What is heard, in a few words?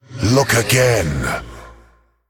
Male Elemental Attack